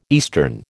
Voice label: "eastern", American